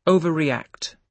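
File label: "overreact", British English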